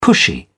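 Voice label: "pushy", British English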